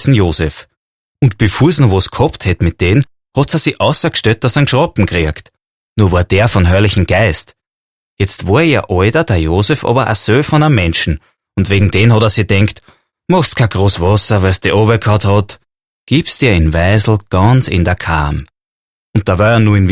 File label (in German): jesus wienerisch; Hörspiel; Wia da Jesus auf d Wöd kuman is; Auszug aus „Da Jesus und seine Hawara“, Wolfgang Teuschl